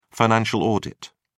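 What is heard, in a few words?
"financial audit", British English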